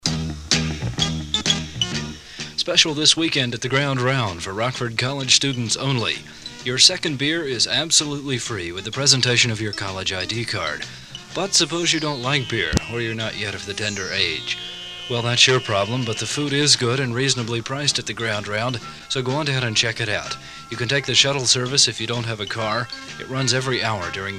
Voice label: commercial; WRCR; Ground Round